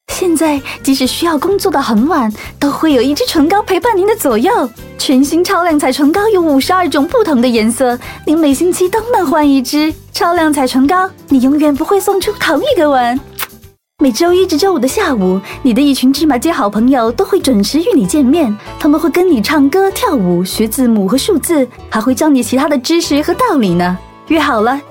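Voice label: Mandarin TVC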